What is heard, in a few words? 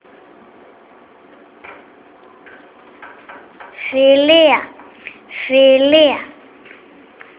friendship GR, voice greece